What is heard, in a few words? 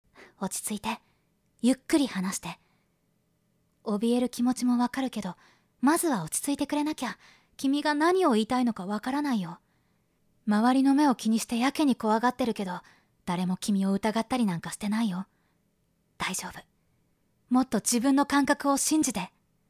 boy